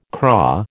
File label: "craw", American